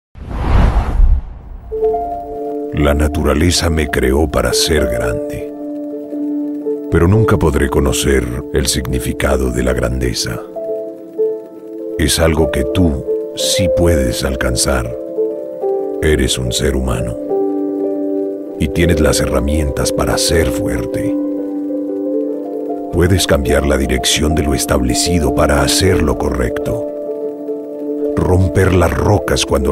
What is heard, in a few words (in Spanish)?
Comercial ESP; Renault Alaskan